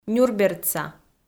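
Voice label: "нюрбердса", Komi, female; kpv audio dict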